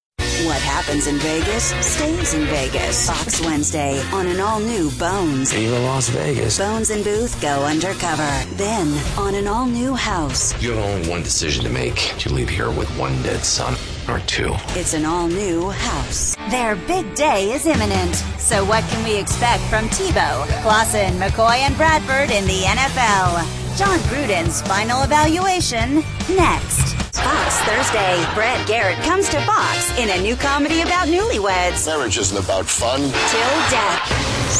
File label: american tv promos